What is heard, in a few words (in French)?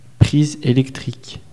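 "prise electrique" French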